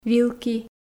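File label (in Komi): "вилки", Komi, female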